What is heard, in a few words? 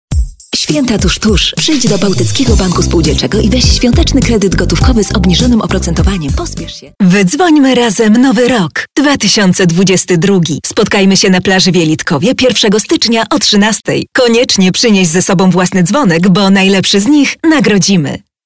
polish female commercials